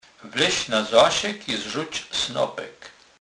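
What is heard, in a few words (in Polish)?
"zasek", Kurpie dialect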